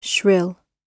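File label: "shrill", British English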